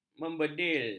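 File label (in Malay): "membedil", Malay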